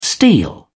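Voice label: "steel", British English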